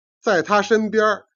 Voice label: "jian", Chinese